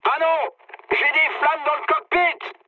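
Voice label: on fire, mood medium, French; War Thunder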